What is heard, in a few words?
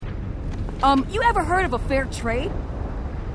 female marine; h3dialogue